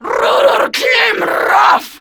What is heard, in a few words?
Attack, Female; Warframe; Grineer Female; Battle Barks